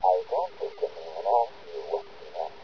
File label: mumble; video games; source engine; hl1; gman